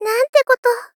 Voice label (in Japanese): Gentle, Shock, "Nante koto"; Rei Yumesaki